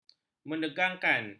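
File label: "menegangkan", Malay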